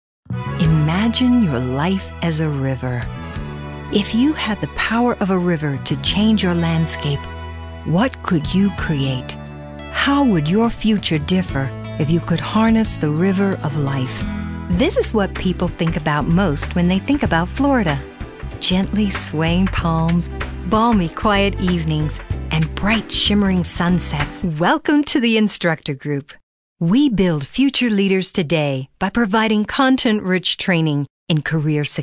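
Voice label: narration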